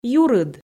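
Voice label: "юрыд", Komi, female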